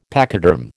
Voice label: "pachyderm", American